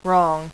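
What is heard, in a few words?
"wrong" female; voice; Web Clip Empire 150,000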